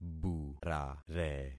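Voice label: "burare", concatenated syllables; Affixing experiment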